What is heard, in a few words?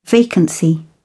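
"vacancy", British English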